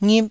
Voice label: "ngip1", taiwanese syllables

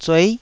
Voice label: "zuei9", taiwanese

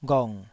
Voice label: "ghong3", Taiwanese syllable

